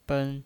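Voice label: "ben", Mandarin Chinese, first tone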